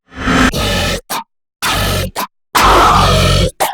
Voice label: Incantation; Warframe; Entrati Lab Quest; 2023 TennoCon